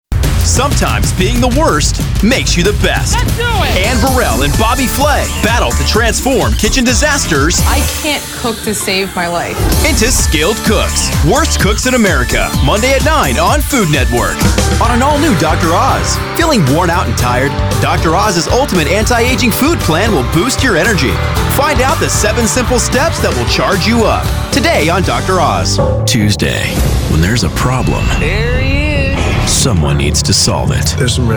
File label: promo, men